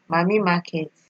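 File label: "mami market", Nigerian Pidgin, female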